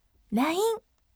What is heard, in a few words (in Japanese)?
voice line yasashime